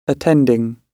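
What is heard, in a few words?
"attending", British English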